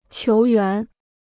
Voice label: "球员", Chinese

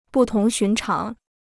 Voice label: "不同寻常", Chinese

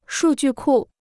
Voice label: "数据库", Chinese

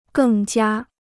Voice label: "更加", Chinese

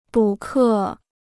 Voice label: "补课", Chinese

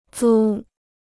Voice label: "租", Chinese

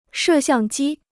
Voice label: "摄像机", Chinese

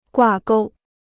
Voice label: "挂钩", Chinese

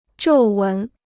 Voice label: "皱纹", Chinese

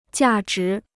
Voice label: "价值", Chinese

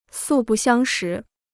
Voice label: "素不相识", Chinese

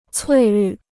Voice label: "翠绿", Chinese

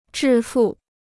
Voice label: "致富", Chinese

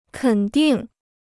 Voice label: "肯定", Chinese

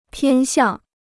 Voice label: "偏向", Chinese

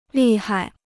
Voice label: "厉害", Chinese

